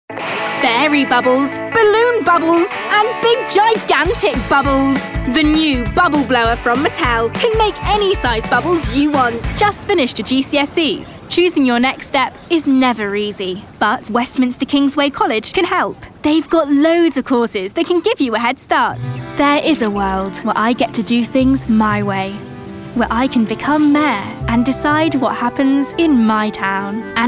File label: UK English Commercial Young